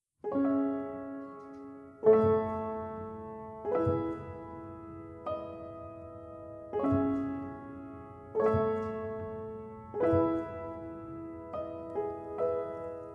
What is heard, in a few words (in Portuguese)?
INDIFERENCA, Românticas, fem; Telemensagens 2017